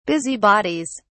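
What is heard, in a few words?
"busybodies", British English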